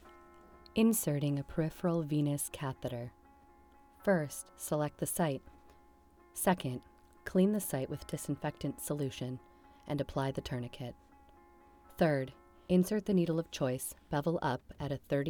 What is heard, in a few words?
Medical narration; insert IV